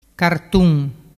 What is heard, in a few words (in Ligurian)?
"cartun", dialettu